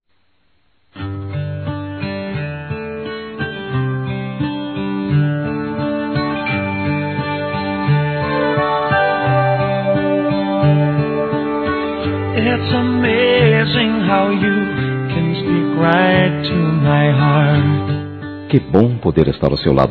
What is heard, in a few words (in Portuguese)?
Aniversário Romântico Suave Masculino; Aniversários